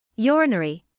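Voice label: "urinary", British English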